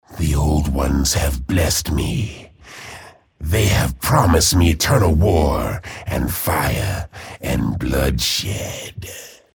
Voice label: chatter neutral